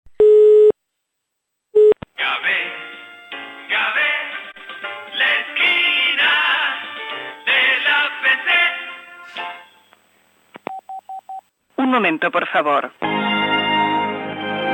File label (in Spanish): mensajes telefonicos